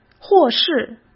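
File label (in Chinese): "或是", Mandarin Chinese; chinese-flashcards; hsk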